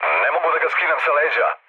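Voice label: Serbian aircraft damaged mood low, speech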